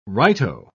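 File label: "righto", British English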